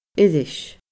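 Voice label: "iris", Scottish Gaelic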